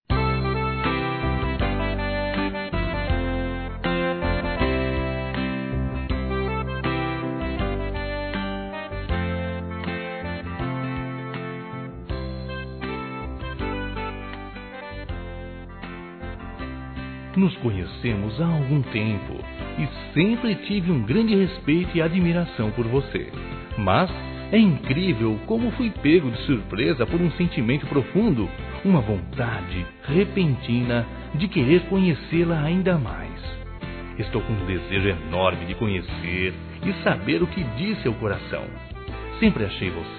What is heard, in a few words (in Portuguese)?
Paquera Masculino; Telemensagens; Conquistas Cantadas paqueras; Telemensagens 2017